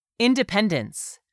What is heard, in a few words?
"independence", English; 3000 words